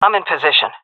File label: in position, fem; vo; agents; Source Model Packs; Counter Strike Model Pack; CS2